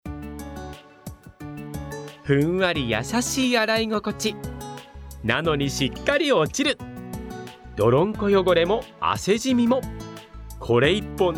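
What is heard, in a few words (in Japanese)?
ナレ, 男性 ボイスサンプル; senzai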